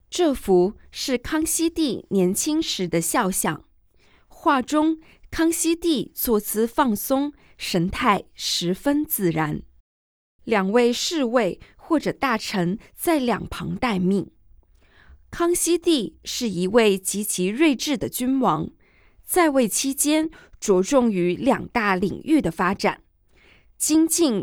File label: Mandarin; audio guide; versailles